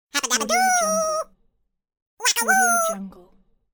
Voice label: minion silly voice; applehillstudios

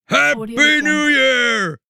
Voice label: santas voice happy new year

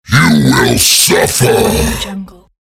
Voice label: evil creature saying you will suffer

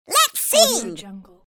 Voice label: cartoon voice lets sing

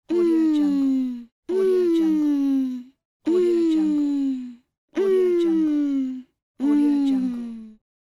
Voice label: female child satisfied voice pack

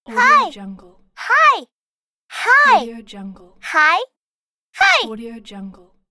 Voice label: a child saying hi; arrow-music

